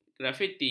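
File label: "grafiti", Malay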